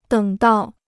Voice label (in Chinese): "等到", Chinese